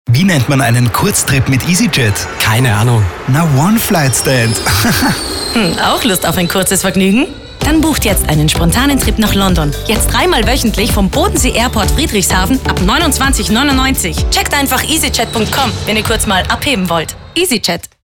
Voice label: SPOT Kurztrip; Easy Jet

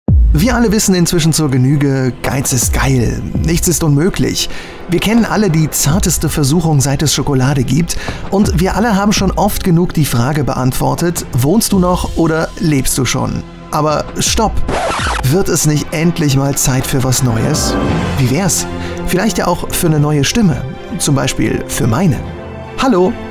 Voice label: Hörprobe Sprecher Mix

